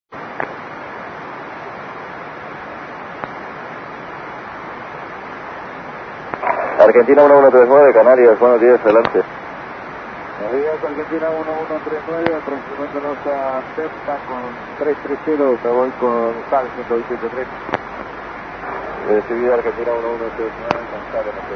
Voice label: usb